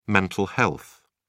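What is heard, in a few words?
"mental health", British English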